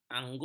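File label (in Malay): "angut", Malay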